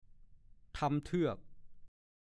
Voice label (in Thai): "ทำเทือก", ภาษาโคราช; ไถคราดดินให้เป็นโคลนตมเพื่อตกกล้า